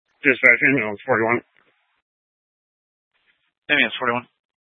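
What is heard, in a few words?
Fire Dispatch, Scanner audio recording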